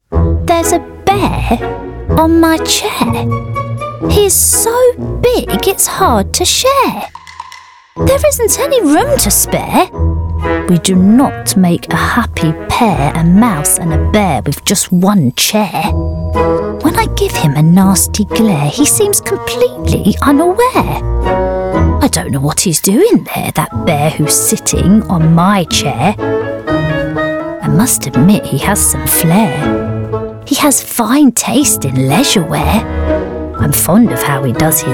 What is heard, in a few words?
Character